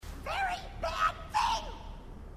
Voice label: grunt; h2dialogue; grunt crazy

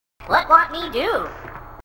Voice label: grunt; h2dialogue; grunt crazy

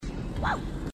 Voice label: woo, grunts

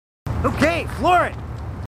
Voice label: marines, marine timid; h2dialogue

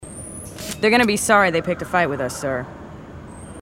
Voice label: female marines

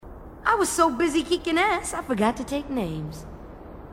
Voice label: female marine, marine tough

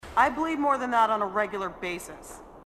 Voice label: female marine, sassy

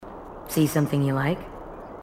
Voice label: marine tough

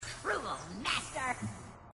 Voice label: grunt; h2dialogue; grunt crazy

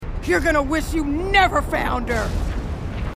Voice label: female marine

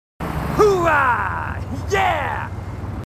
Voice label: marines, aussie; h2dialogue

